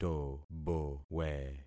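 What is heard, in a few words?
"tobowe", concatenated syllables; Affixing experiment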